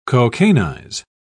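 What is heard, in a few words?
"cocainize", British English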